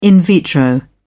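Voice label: "in vitro", British English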